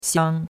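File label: "xiang1", Mandarin Chinese; Cours-de-Chinois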